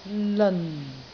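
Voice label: "leon4", Cantonese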